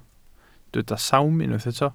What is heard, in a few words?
"sawm", welsh